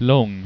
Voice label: "laang", Swedish

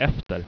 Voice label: "efter" Swedish

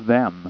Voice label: "vem", Swedish

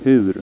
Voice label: "hur", swedish

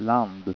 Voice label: "land", Swedish

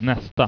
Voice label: "naesta", Swedish

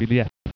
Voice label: "biljett", Swedish

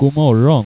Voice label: "god morgo", Swedish

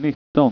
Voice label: "nitton", Swedish

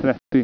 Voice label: "trettio", swedish

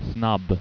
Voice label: "snabb", Dutch

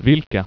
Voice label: "vilka", Swedish

